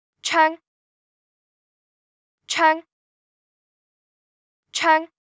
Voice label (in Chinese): 窗, Cantonese; Traditional Chinese